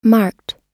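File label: "marked", US English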